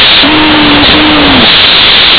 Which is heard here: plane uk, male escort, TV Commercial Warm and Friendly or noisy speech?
noisy speech